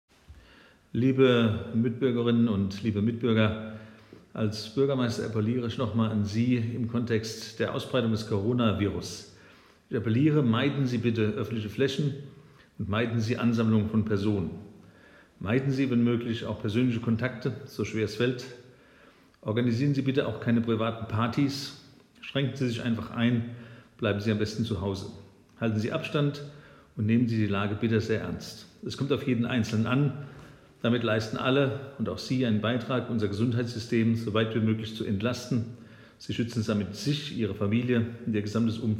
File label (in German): Lautsprecherdurchsagen